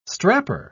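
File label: "strapper", British English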